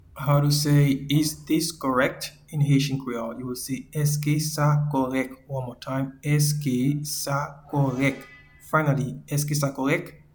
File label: Is this correct in Haitian Creole Eske sa korek